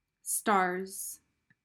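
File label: "stars", English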